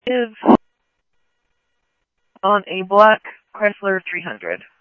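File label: Mesa Police Department, scanner audio; Mesa Simulcast; MESA PD Superstn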